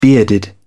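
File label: "bearded", English, British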